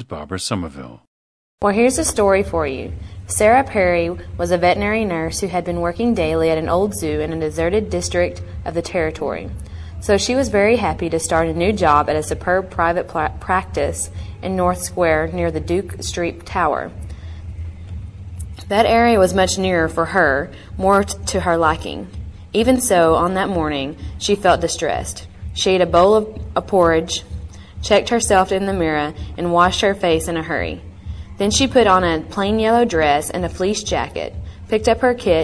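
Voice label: texas, International Dialects of English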